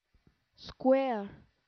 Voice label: "square", English